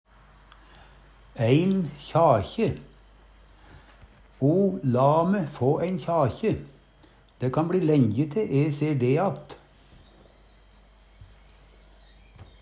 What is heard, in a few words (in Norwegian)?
"ein kjakje", Numedalsmål